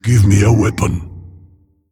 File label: Male Mogu ERROR NEED WEAPON